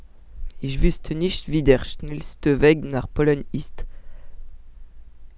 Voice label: L1 French Speaking German